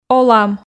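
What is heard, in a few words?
"олам", Komi, female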